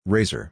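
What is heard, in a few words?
"Razor", English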